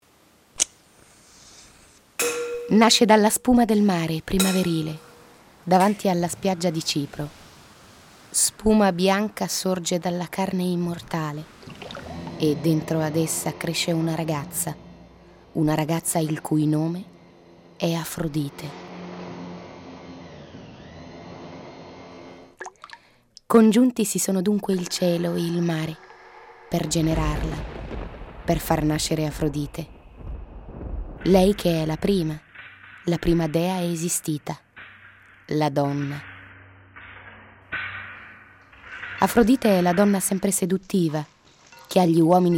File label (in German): video kommentar sensual